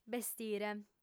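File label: "bestire", female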